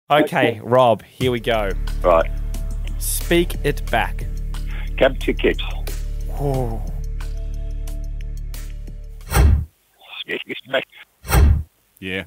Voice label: Speaking Backwards